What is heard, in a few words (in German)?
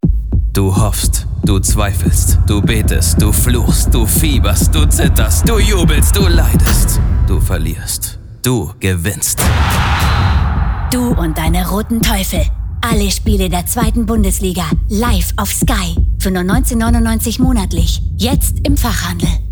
Spots OFF; Sky; Teufel